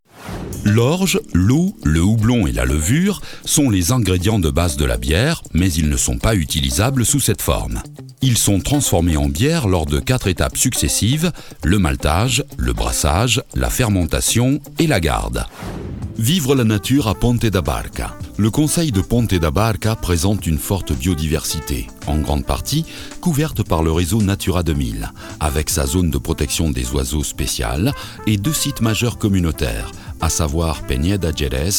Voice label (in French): audioguide, voix grave